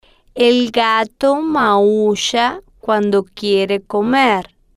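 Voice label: el Gato Maull A Cuando Quiere Comer, slow, Spanish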